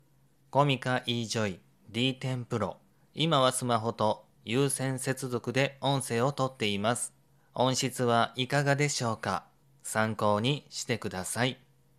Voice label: wireless dynamic microphone; comica